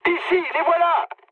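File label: French enemy detected mood med; War Thunder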